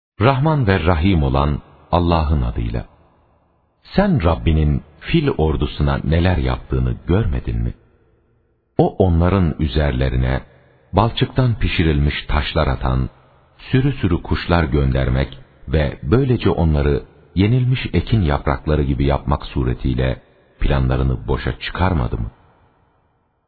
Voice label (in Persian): ترجمه گویای ترکی استانبولی; سوره فیل